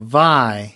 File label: "vie", us